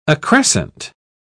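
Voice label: "accrescent", British English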